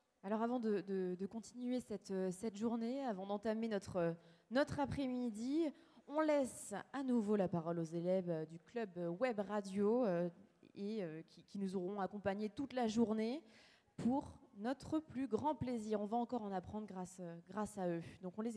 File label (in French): Patois Ados; sexualites adolescentes a l'ere du numerique